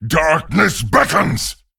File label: Male Vrykul Attack